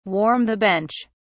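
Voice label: "warm the bench", English, British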